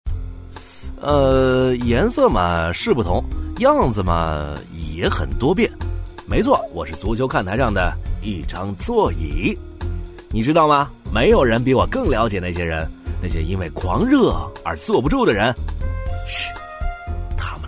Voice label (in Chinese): 男 广告配音 青春活力; 男B3-磁性稳重; 轻松活泼; 别克君威球迷篇